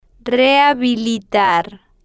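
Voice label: "rehabilitar", Spanish, slow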